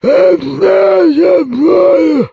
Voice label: zombie enemy; STALZONE; customnpcs; stalker